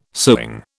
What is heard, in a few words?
"sewing", British